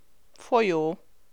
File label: "folyó", Hungarian